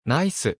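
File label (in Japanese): "ナイス", Japanese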